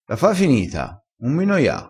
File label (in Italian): "noia", crespinese